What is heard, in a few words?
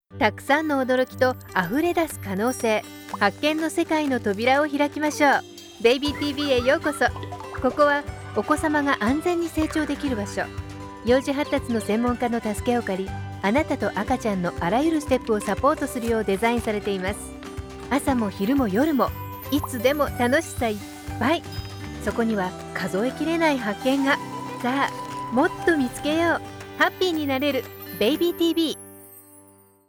Baby TV Promo Spot